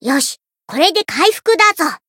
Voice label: heal, jp

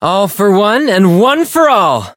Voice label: chant

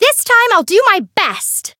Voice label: variable chant; ciel

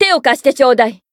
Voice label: Voice encount first jp; herena; zodiac

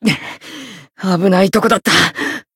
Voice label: win barely, jp

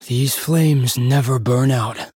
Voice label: Voice variable chant

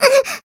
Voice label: damaged, jp; leviachan

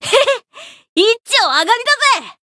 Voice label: Voice win jp; elga

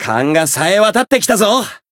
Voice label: growth, jp

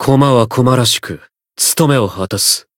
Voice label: Voice variable chant jp

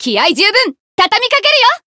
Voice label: chant jp

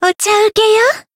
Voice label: Voice heal, jp; pizzica

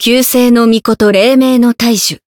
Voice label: title, jp

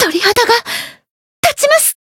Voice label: encount fear, jp

